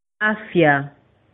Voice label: cheers, swahili